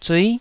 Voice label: "zui9", taiwanese

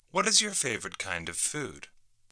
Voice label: what Food pre Emphasis

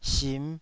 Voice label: "sim5", taiwanese Syllables

